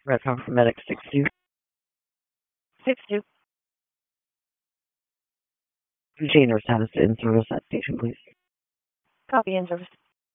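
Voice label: Wake County EMS Dispatch, p25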